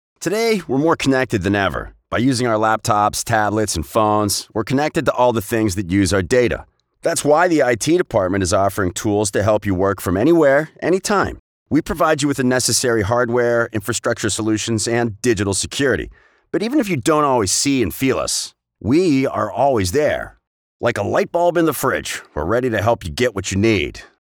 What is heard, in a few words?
conversational friendly voice over; more connected than ever to data and it